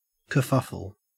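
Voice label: "kerfuffle", Australian English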